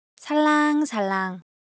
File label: Korean, Ideo Phones; Motion